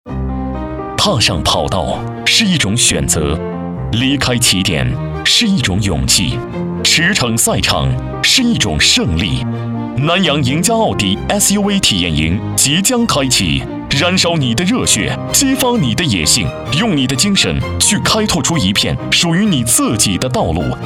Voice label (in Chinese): 男 国 大音; 奥迪